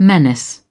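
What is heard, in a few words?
"menace", British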